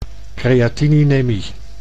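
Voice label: "creatininemie", Dutch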